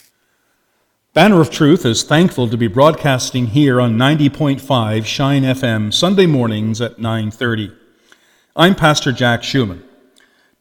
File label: Promo; Shine FM 90.5